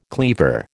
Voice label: "cleaver", American

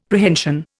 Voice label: "Prehension", Indian; Dictionary

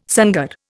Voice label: "sangar", Indian

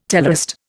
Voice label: "teleost", Indian

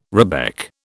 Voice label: "rebec", British

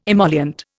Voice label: "emollient", Indian

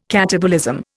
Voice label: "catabolism", Indian

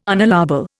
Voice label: "unallowable", Indian

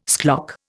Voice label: "schlock", Indian

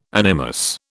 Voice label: "animus", British

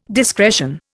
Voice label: "discretion", Indian

